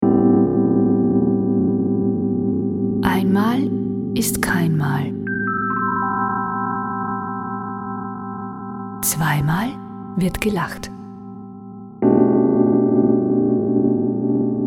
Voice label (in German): Stimme Abstrakt; Sounddesign Brigitte Winter